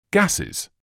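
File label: "gases", British English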